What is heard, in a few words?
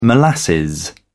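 "molasses", British English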